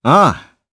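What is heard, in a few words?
voice Aff1, voices heroes, Japanese; Kibera; kingsraid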